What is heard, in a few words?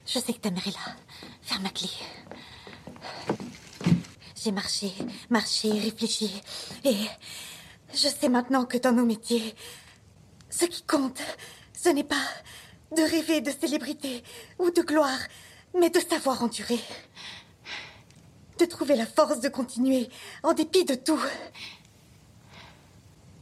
DUBBING; voice-talent; the seagull